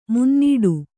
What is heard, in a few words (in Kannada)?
"ಮುನ್ನಡೆ", Kannada